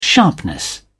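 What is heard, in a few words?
"sharpness", British English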